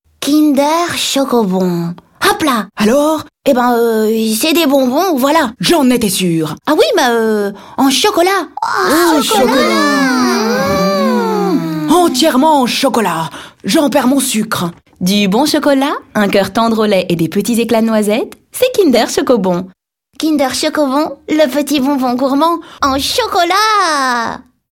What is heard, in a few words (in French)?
PUB, voix off; Kinder Chokobon